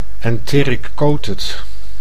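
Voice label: "enteric-coated", Dutch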